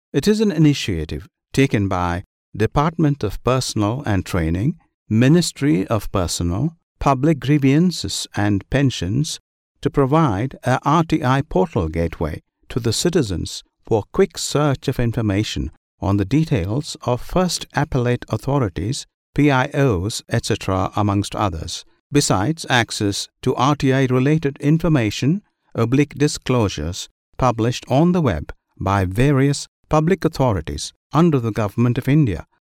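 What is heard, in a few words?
Tamil Sri Lanka male English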